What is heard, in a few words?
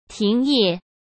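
"停业", Mandarin Chinese; chinese flashcards; hsk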